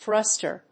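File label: "thruster", English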